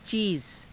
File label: "ciiza", Hindi